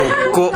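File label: "bokko", Minamiyamagata dialect Japanese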